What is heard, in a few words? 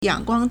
"yang3guang1", Chinese